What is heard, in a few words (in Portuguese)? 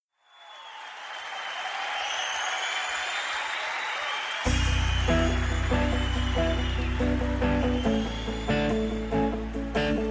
ANIV ROM EVANG FEM